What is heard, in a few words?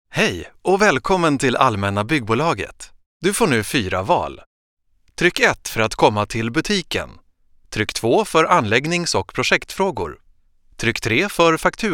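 Swedish, commentary, Freundlich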